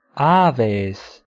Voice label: "aves", latin